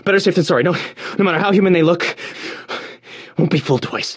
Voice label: radio initial broadcast; left4dead; churchguy; Source engine

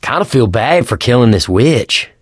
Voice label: wedding witch dead, survivor voice; Left 4 Dead 2; Source Engine; video games

